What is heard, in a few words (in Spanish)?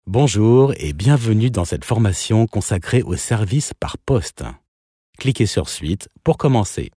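e-learning, French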